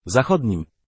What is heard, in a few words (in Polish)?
vhf w, pl google male; sr0wx